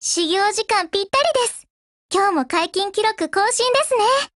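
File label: HELLO Japanese, Voice; GF Alarm; AUGPARA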